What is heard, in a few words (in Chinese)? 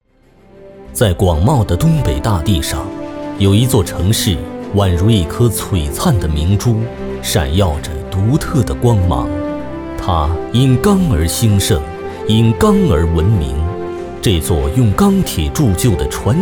男, 宣传片; 鞍钢